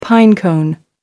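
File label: "pinecone", British English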